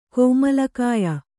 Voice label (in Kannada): "ಕೋಮಲಕಾಯ", kannada